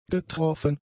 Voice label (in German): "getroffen", german; adjectives